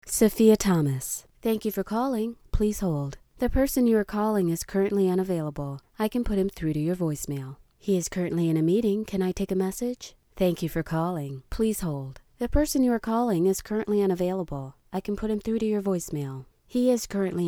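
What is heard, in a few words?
voicemail classy sexy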